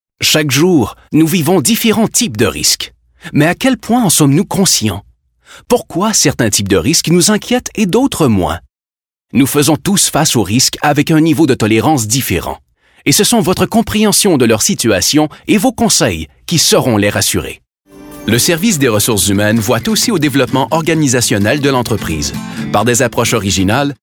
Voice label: locutor canadiendse french canadian voiceover